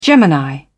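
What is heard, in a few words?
"gemini", British English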